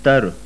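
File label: voiceless unaspirated dental, sindhi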